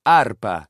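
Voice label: "arpa", Italian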